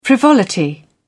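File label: "frivolity", British English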